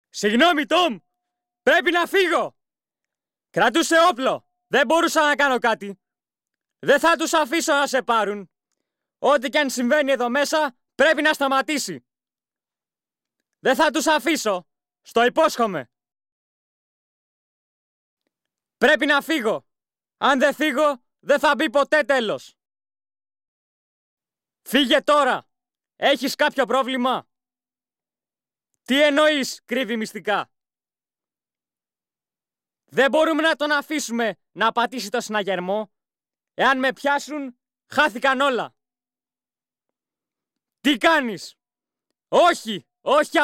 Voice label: Young Voice Sample